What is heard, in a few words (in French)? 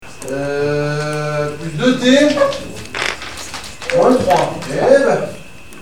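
"eh ben", French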